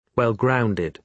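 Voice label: "well-grounded", British English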